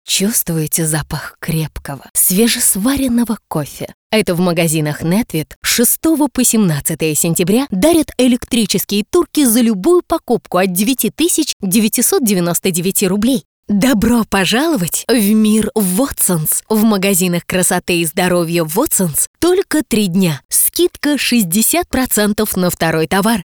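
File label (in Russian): informational, advertising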